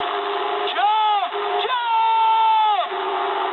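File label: English bailout mood med; War Thunder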